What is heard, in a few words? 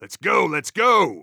radio lets go